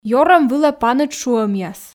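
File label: Komi, female; ёрӧм вылӧ паныд шуӧмъяс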